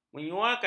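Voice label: "menyuakan", Malay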